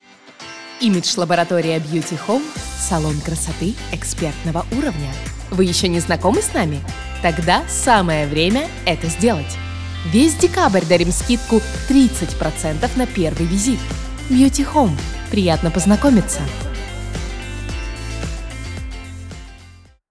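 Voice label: Russian Commercial; GoLocalise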